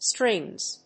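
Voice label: "strings", English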